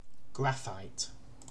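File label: "graphite", British English; pronunciations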